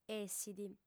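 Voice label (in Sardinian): "essit", female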